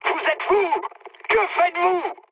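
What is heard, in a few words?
French player killed ally mood high; War Thunder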